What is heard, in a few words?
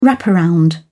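"wraparound", English, British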